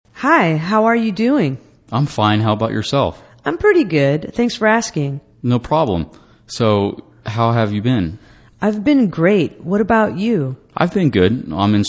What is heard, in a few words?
english conversation greetings